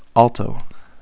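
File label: "alto", PHL, male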